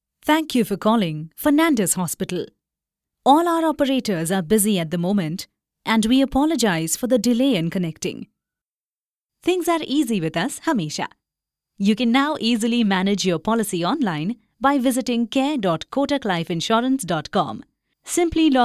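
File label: Indian Eng IVR, Indian English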